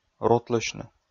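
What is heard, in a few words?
"рутлысьны", Komi, male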